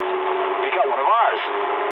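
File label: aircraft destroyed mood low, speech English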